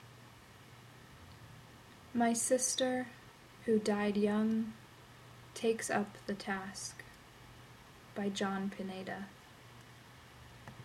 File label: Poem